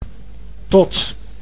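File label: "tot", Dutch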